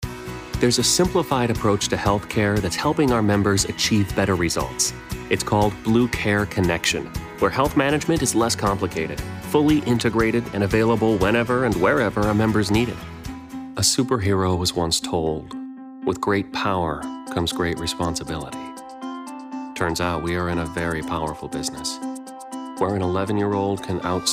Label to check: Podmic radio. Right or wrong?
Wrong